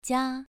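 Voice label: "jia", Mandarin Chinese, second tone, pronunciation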